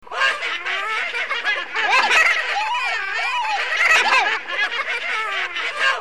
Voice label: demon babble